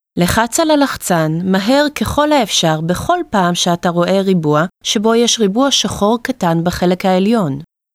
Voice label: Press Visual Target, hebrew